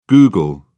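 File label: "google", British English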